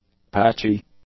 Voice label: "patchy", English; adjectives